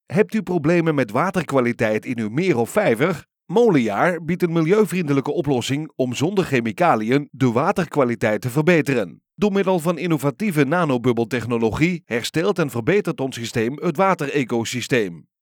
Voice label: Authority - warm - believable - Dutch native voiceover